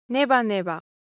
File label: Japanese Texture, Ideo Phones